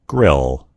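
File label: "grill", American English; gcompris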